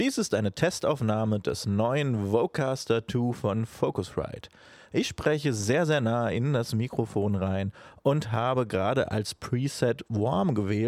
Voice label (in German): vocaster mit warm preset; Focusrite Vocaster Two